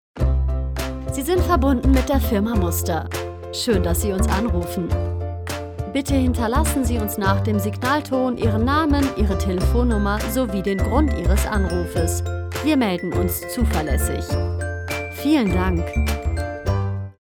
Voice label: Telefonansage

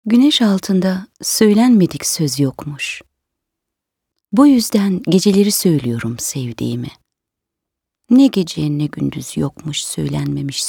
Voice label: Tuerkische sprecherin voice tuerkisch

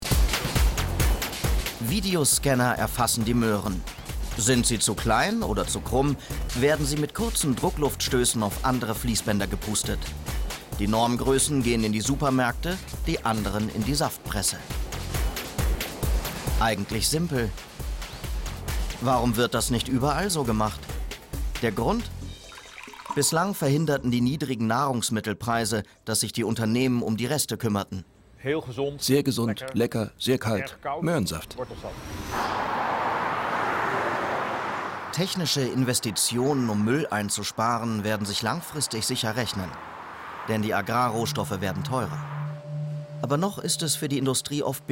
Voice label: TV Kommentar

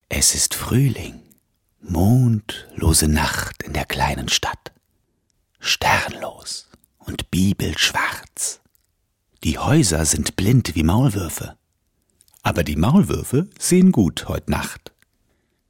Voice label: Erzählung; Unter dem Milchwald

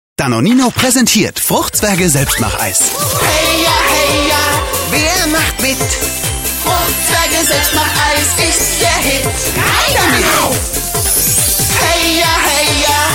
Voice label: Comic Gesang Sprache und junger Off

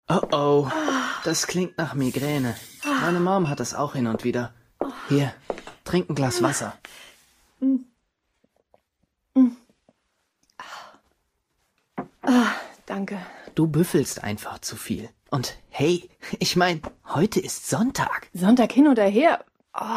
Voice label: Hoerspiel Mitbewohner

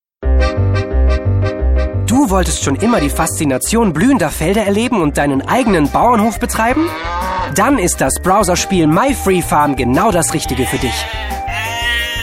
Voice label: Werbung

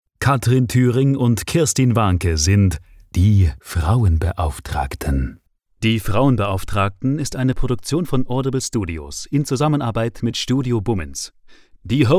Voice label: Sprechprobe Podcast Ansager

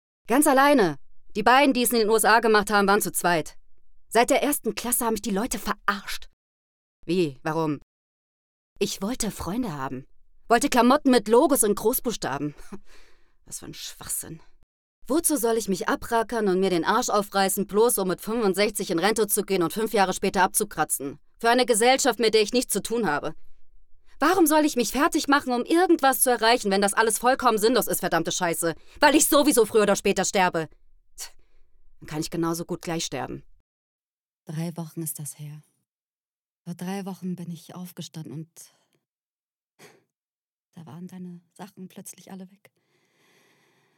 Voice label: Synchronmix, rau; stimmklang

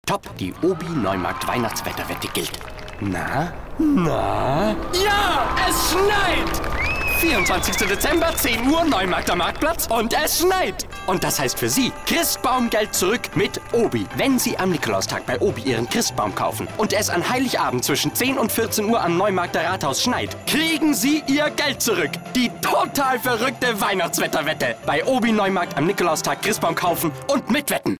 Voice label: Werbung; OBI

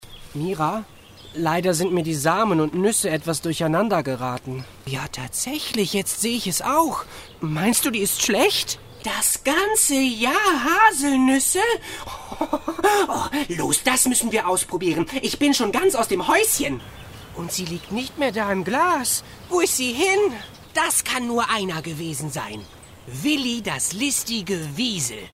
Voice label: Hoerspiel

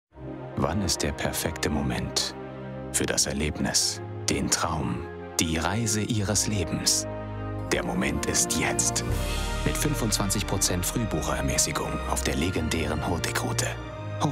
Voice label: TV Spot; Hurtigruten

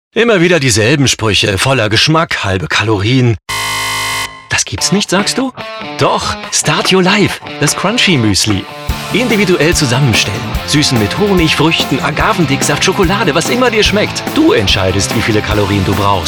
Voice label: Werbung; Start-your-life

